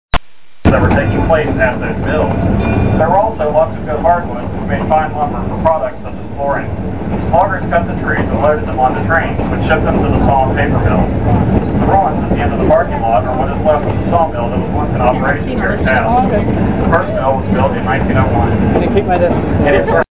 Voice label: commentator